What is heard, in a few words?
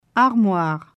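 "Armoire", French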